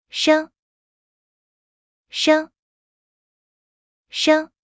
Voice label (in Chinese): "生", Mandarin; Traditional Chinese